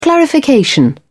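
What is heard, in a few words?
"clarification", British English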